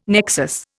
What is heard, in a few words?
"nexus", Indian